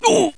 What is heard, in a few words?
Germ Ouch; Close Combat